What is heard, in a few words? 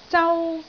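"zau1", Cantonese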